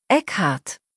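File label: "Eckhard", German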